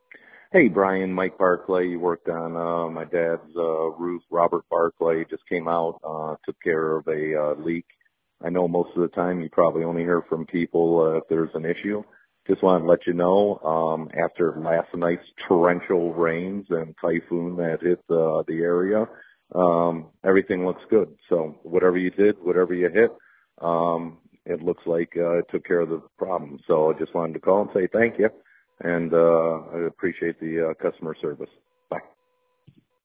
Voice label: voicemail